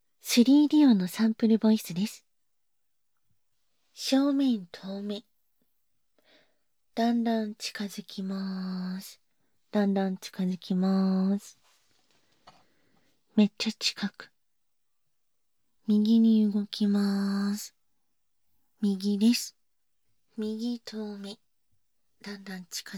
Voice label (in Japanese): バイノーラル収録全体的な説明